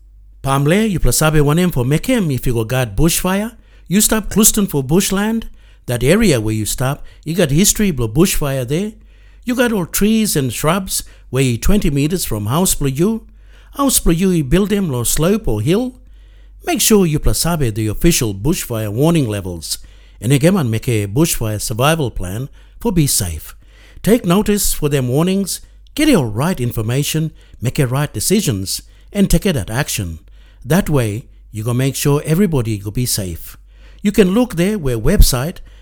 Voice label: yumplatok bushfires